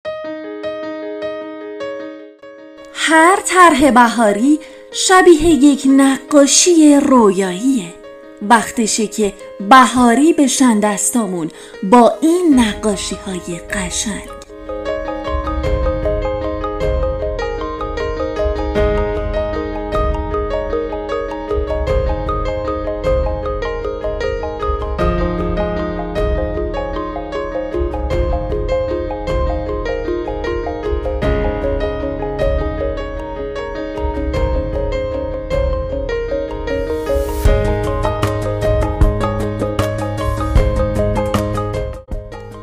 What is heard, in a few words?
narrator